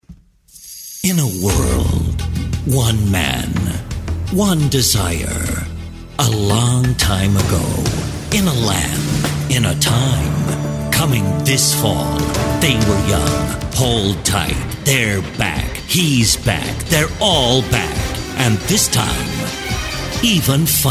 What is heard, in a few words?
movie trailer voice